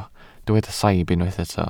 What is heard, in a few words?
"saib", Welsh